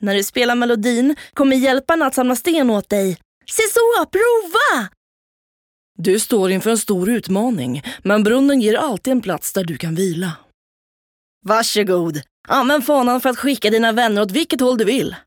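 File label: Swedish, female, character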